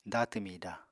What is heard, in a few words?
"datw mida", Apatani